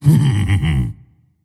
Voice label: happy; tiny